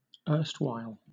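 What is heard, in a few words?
"erstwhile", British English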